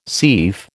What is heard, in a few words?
"seif", American